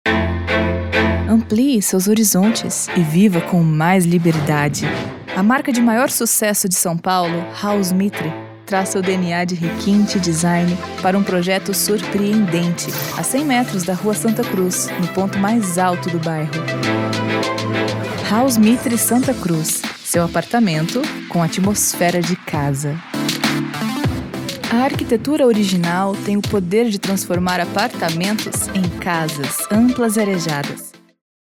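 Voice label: commercial ad classy elegant real estate female brazilian portuguese voice; mitre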